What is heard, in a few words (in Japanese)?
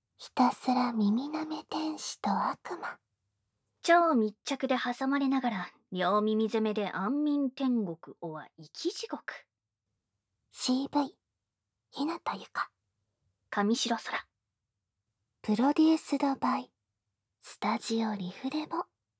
タイトルコール, ASMR